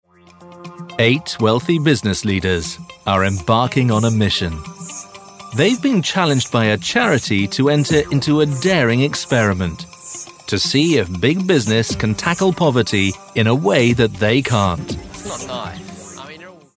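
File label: British narration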